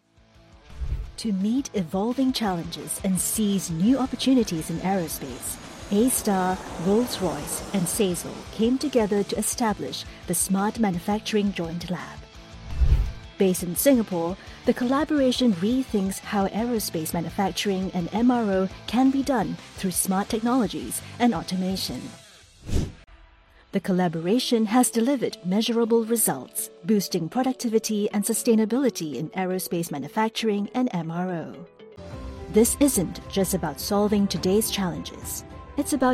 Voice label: Corporate Mini Doc Narrator Crisp, Inspirational, Forward Looking